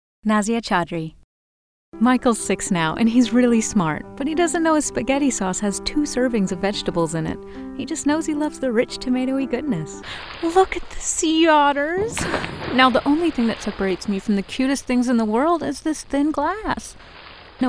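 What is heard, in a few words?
Female Commercial, voiceover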